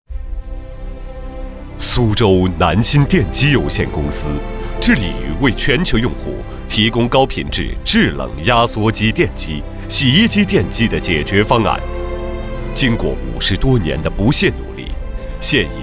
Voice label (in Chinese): 男 专题 电机; 南新电机